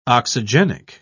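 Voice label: "oxygenic", British English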